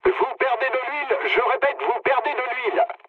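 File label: damaged oil, mood low, French; War Thunder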